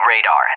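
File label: "radar", English, USA; Target; DCS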